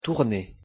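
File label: "tourner", French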